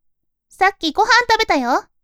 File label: woman